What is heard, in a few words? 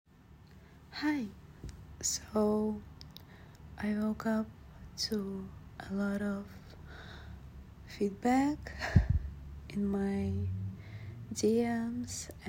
F, F4A, slavic accent; so turned on by all the attention